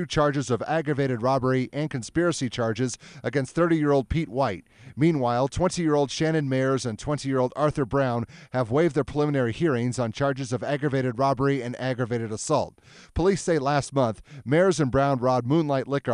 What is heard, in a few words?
Wyoming radio news